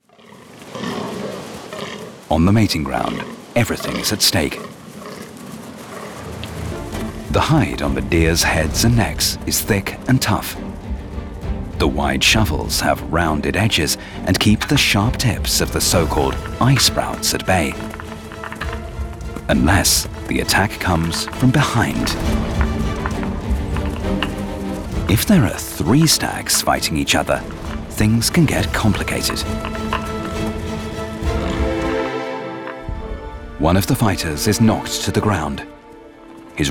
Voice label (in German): Naturfilm Documentary; NDR Naturfilm SH Stags